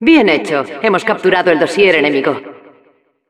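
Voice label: Intel team captured, Spanish; "¡Bien hecho! Hemos capturado el dossier enemigo."; Narradora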